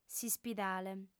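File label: "s'ispidale", female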